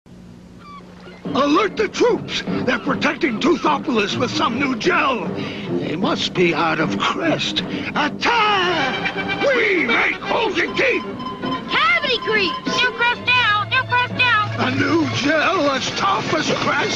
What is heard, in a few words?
Toothpaste Commercial